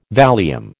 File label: "Valium", American